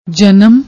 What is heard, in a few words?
"janam", Punjabi